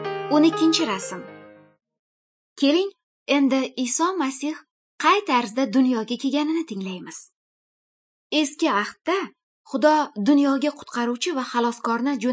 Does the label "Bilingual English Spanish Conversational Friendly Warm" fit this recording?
No